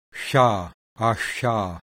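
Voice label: voiceless postalveolar velar fricative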